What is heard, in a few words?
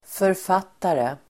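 "författare", Swedish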